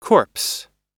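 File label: "corpse", US English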